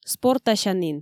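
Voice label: "спортасянін", Komi, female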